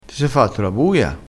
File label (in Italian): "buia", crespinese